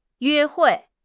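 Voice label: "yue1 hui4", Chinese; 汉语口语新时代-初级下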